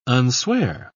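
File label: "unswear", British English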